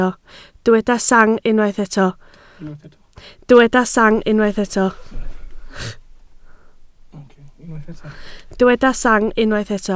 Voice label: "sang", Welsh